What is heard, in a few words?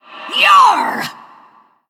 Female Ghost Attack